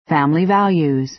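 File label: "family values", American English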